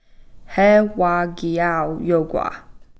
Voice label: "hee'waakiyau' yokwa", store

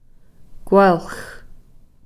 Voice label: "kwelx", leg

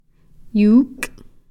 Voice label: "yuukh", Cow Creek

